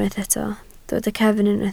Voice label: "cefn", Welsh

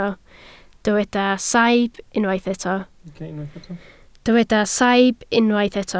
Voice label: "saib", Welsh

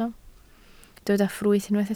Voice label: "ffrwyth", Welsh

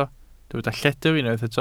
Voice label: "lledr", Welsh

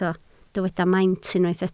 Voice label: "maint", Welsh

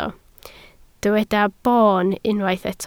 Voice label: "boon" Welsh

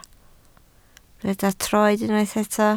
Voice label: "troed", Welsh

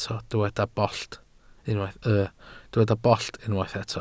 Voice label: "bollt", Welsh

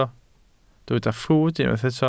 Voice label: "ffrwd" Welsh

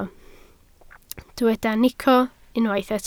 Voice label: "nico", Welsh

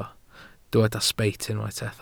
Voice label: "sbeit", Welsh

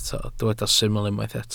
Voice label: "syml" Welsh

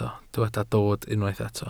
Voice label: "dod", Welsh

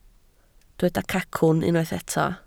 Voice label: "cacwn", Welsh

